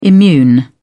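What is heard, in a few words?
"immune", British English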